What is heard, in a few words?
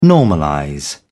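"normalize", British English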